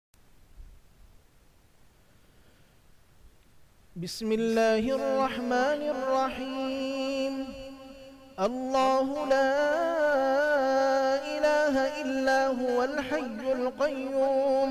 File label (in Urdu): آیت الکرسی, Bass Booster; ayat ul kursi